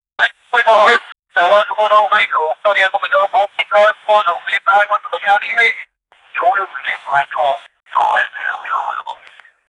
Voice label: police voiceover